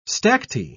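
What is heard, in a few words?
"stacte", British English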